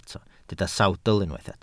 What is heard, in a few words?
"sawdl", Welsh